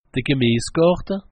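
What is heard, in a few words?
"gemies garte", Alsatian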